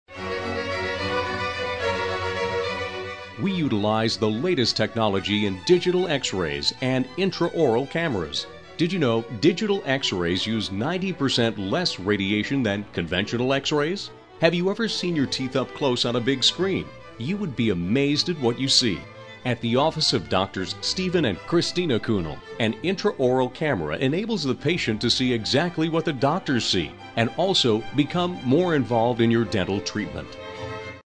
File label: on hold message; medical dental; Gold Coast Dental Center